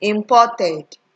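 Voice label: "imported", Nigerian Pidgin, female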